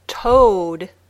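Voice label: "toad", English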